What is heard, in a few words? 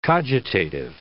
"cogitative", English